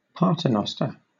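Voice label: "paternoster", British English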